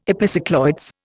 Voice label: "epicycloids", Indian